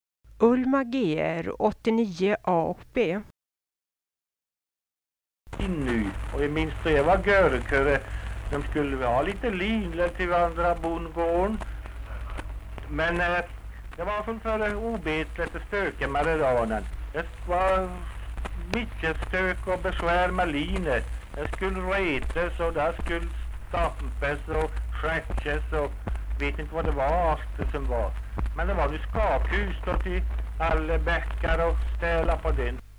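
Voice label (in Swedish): Linsäll Härjedalen, Svenska sagor, dialekter; Folkminnen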